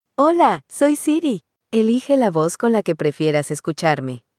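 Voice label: Mexican Spanish; es-MX; iOS Sounds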